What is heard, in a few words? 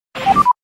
team radio, ringtone